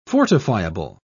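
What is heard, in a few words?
"fortifiable", English, British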